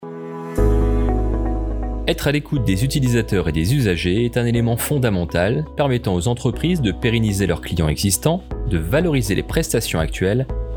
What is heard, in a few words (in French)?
VOIX OFF SONDAGE